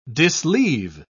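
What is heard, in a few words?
"disleave", English, British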